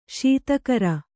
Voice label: "ಶೀತಕರ", Kannada; śita kara; noun; = ಶೀತಕಿರಣ - 1.; camphor.